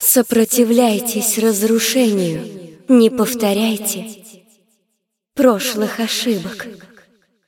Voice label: cinematic voice, ru; lineage2

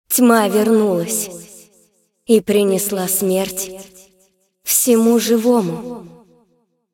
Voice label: cinematic voice, ru; lineage2

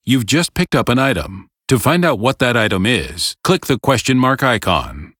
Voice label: tutorial voice; lineage2

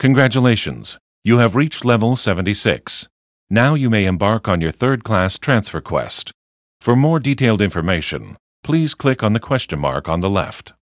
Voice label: tutorial voice; lineage2

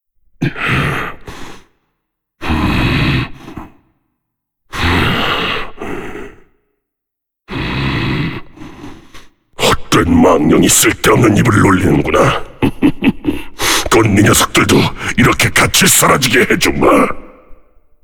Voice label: cinematic voice; lineage2

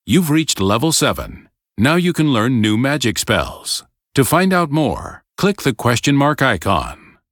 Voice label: tutorial voice; lineage2

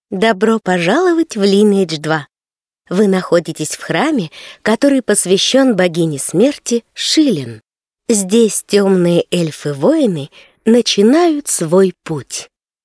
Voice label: tutorial voice, ru; lineage2

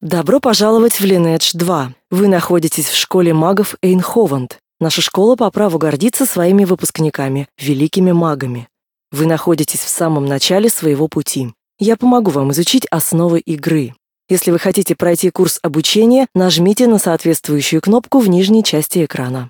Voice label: tutorial voice, ru; lineage2

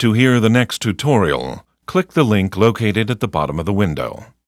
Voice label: tutorial voice; lineage2

